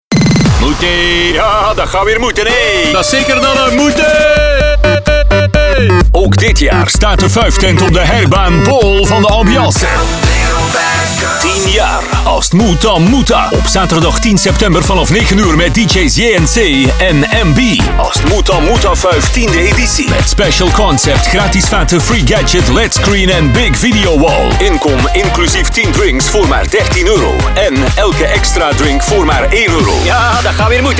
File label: party commercial; aztmoetdamoetda